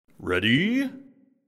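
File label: "ready", announcer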